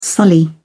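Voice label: "sully", British English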